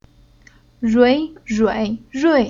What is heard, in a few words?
"Rui", Chinese